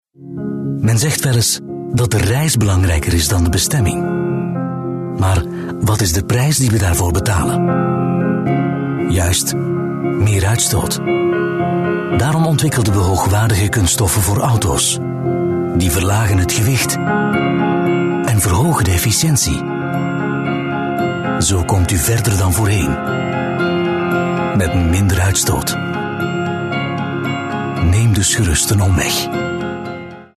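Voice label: Flemish, male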